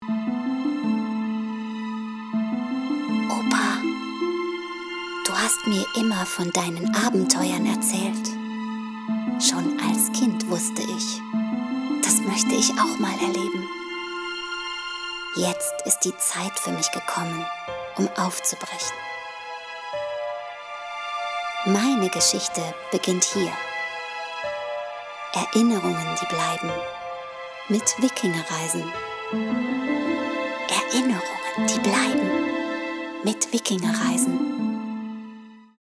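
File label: german announcements, Femelle; doubleuse professionnelle allemande; Annonces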